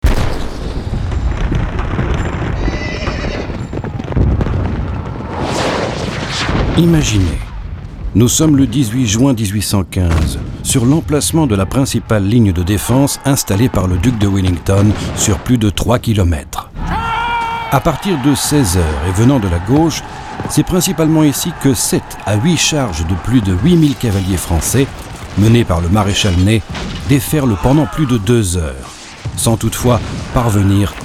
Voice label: Documentary